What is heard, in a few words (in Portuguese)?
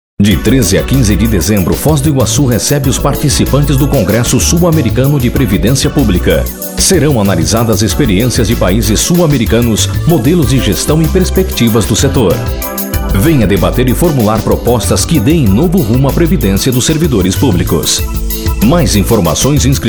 Spot; congresso previdencia